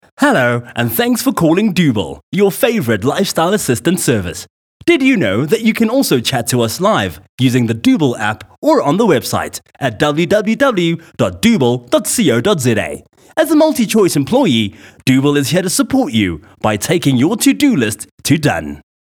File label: Bright